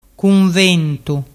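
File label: "cunventu", Vernazzese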